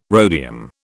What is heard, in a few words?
"rhodium", British